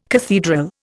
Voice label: "cathedral", Indian

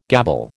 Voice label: "gabble", American

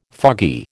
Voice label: "foggy", American

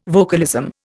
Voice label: "vocalism", Indian

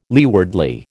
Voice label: "leewardly", American

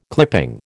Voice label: "clipping", American

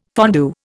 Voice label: "fondue", Indian

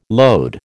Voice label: "load", American

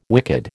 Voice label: "wicked", American

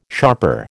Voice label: "sharper", American

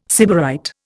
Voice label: "sybarite", Indian

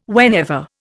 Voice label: "whenever", Indian

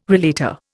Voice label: "relater", Indian

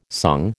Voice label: "sung", American

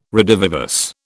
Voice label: "redivivus", British

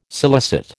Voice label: "scilicet", American

